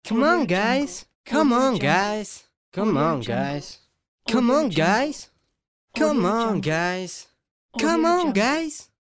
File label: teenage boy saying come on guys pack